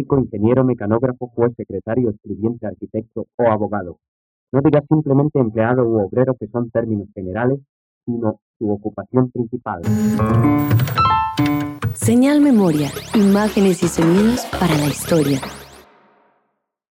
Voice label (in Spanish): Anuncio de programación y mensaje del gobierno nacional; POSTAL Romero, B. (1950); Colombia, HJCK; HJCK El Mundo en Bogotá; emisora